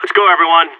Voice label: radio lock n load; Source Model Packs; Counter Strike Model Pack; CS2; seal

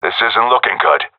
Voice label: scared, seal diver; agents

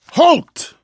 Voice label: "halt", vortigaunt; Half Life 2; Episode 2

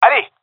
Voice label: round start, gendermerie male

